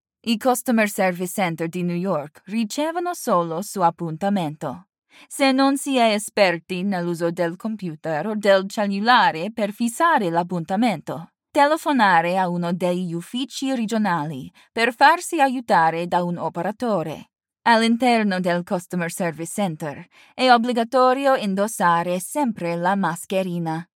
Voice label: Italian